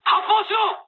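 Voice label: Japanese attack position mood high; War Thunder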